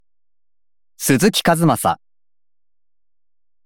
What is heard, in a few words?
free talk